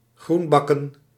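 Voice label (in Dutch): "groenbakken", Dutch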